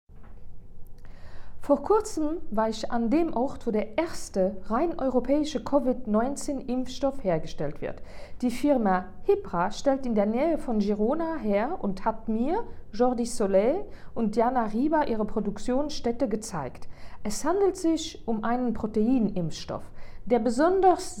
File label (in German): Sprachnachricht